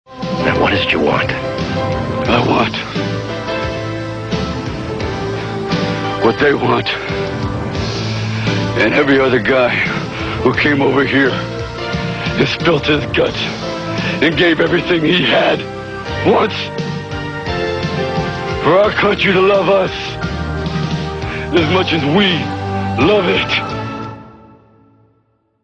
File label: Motivational Speech; Rambo